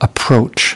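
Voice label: "approach", American English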